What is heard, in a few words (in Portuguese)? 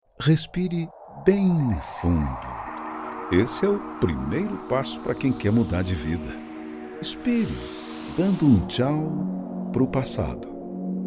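Português - Brasileiro, Masculino, Palavra de Amigo, Voz Madura; Intimista Inspirador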